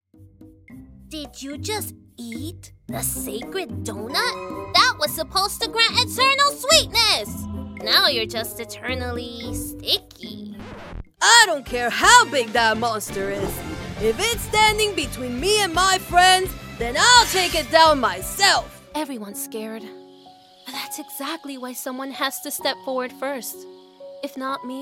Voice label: Animation ADR Dubbing Character Video Game